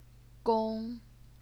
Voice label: "gong1", chinese pinyin

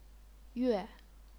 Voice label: "yue4", chinese pinyin

